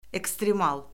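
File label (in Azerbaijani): "ekstremal", Azerbaijani; Orfoqrafiya Lüğəti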